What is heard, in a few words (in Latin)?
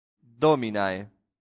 "dominae", latin; santillana